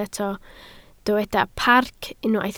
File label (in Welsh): "parc", Welsh